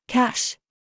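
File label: TRAP, words, British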